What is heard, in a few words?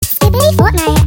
female voice; skibidi; fortnite